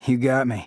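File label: "You got me", male; rantaro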